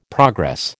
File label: "progress", American